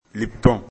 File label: "lipton", lecture bm